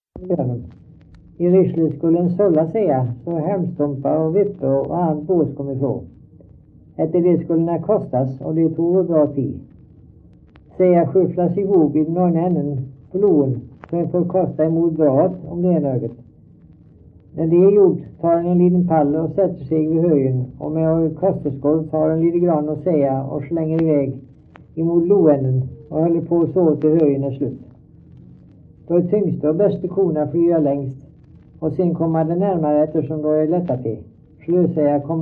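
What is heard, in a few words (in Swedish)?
Stamnared Halland, dialekter; Folkminnen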